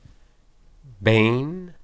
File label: "ben", Hebrew